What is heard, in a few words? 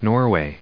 "norway", en us